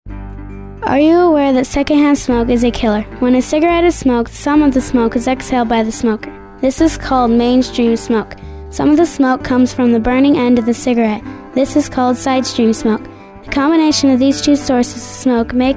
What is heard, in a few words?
Radio Commercial; Kick Butts